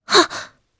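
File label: Vox Casting Japanese; Laias; kings raid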